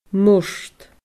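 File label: "murt", Scottish Gaelic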